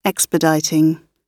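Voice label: "expediting", British English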